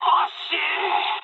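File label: bomb failure mood med, speech japanese; War Thunder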